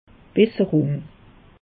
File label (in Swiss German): "besserung", alsace; meteo